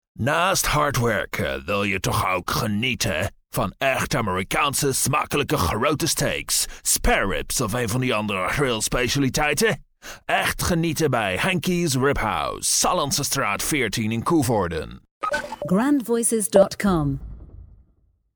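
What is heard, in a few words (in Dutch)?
accent male AMERIKAANS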